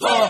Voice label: wah group of men; ooh ahh